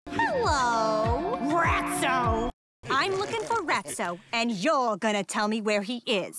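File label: animation voice over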